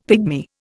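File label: "pigmy", Indian English; Dictionary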